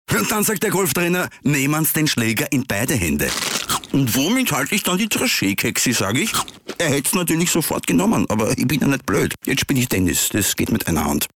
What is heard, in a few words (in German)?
Mann